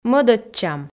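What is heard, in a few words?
"мӧдӧдчам", Komi, female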